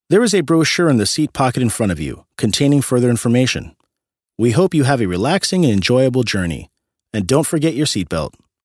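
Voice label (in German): American English, Freundlich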